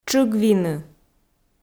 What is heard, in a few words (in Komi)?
"тшыгвины", Komi